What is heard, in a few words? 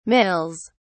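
"mills", British English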